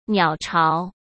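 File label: "鸟巢", Mandarin Chinese; hsk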